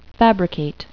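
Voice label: "fabricate", English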